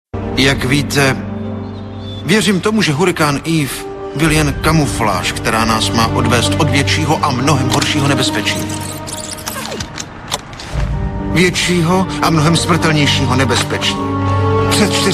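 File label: male, Czech, drama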